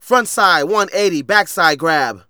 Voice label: Narration; Ollie King